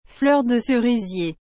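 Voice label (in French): "fleur de cerisier", French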